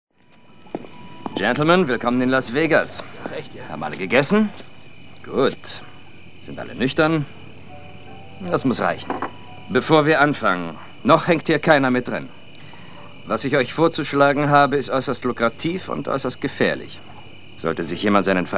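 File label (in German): synchron, sprecher; LOFT VOICES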